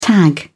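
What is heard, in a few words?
"tag", British English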